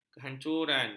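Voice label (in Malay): "kehancuran", Malay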